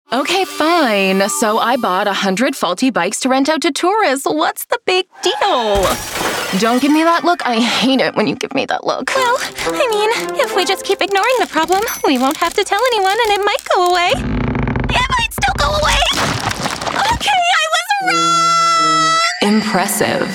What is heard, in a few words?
Animation Demo